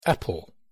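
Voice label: "apple", English; pronunciation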